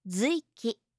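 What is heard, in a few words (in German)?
"zuiki", japanische Mädchennamen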